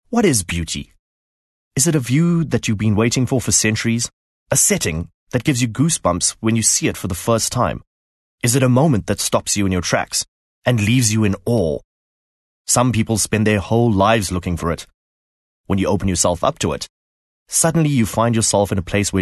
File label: English Softsell